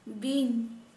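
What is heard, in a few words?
"snake", Santali, female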